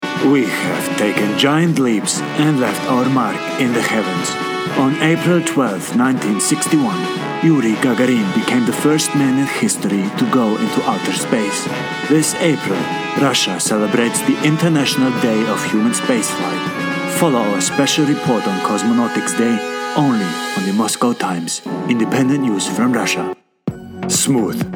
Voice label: English Eastern European Accent

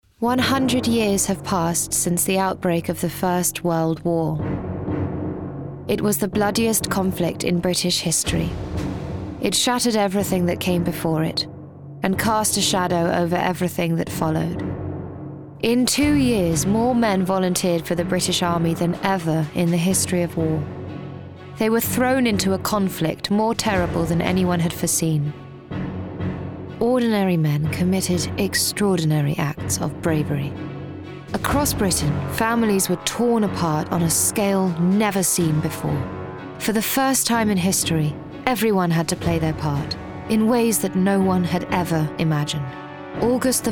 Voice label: narrative

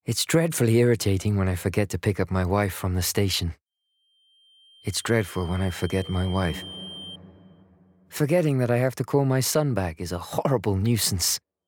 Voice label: commercial reel

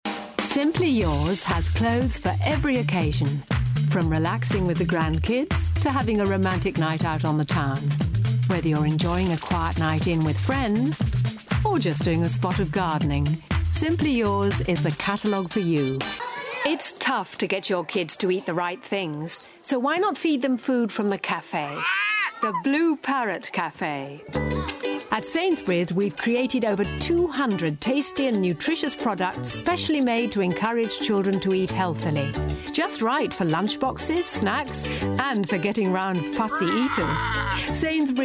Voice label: Commerical

